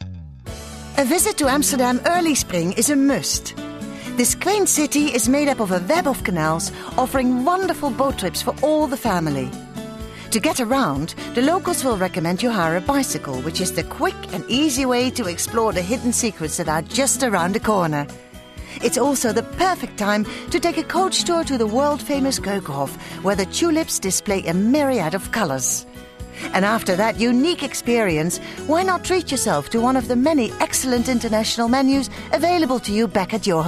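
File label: Dutch English; Dutch, European, Male, Home Studio, 20s-30s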